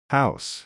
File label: "house", English